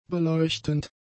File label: "beleuchtend", German; adjectives